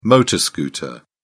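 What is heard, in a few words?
"motor scooter", British English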